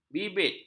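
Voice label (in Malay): "bibit", Malay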